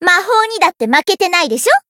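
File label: win, Japanese; alma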